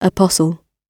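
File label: "apostle", English; oxford api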